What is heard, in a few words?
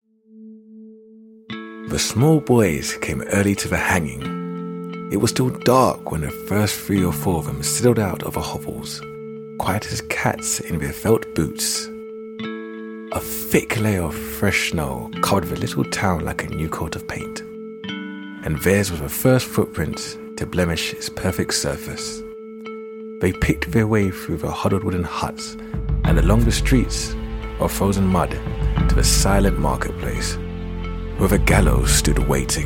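British English, Narration; GoLocalise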